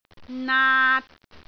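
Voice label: "naat3", Cantonese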